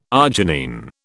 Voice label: "arginine", British

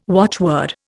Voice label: "watchword", Indian

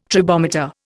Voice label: "tribometer", Indian

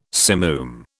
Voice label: "simoom", British

